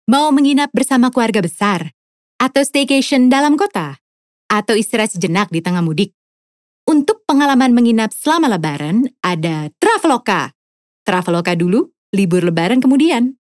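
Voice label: Casual TV commercial Indonesian; Traveloka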